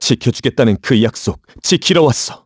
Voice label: vox, kr; heroes; Clause ice; kingsraid